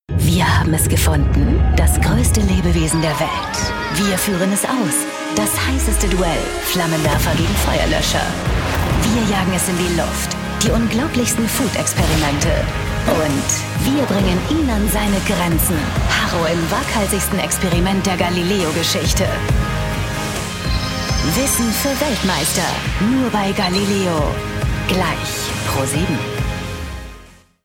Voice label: Trailer Voice; Galileo